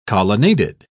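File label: "colonnaded", British English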